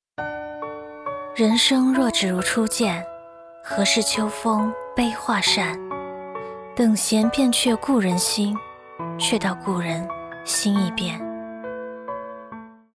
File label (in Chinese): 短视频情感类独白